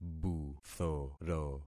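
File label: "buthoro", concatenated syllables; Affixing experiment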